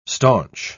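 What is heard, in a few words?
"staunch", English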